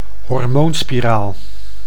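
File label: "hormoonspiraal", Dutch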